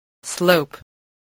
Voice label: "slope", voice, spelling